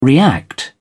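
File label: "react", British English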